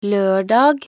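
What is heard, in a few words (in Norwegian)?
"lørdag", Norwegian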